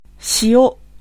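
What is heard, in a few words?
Japanese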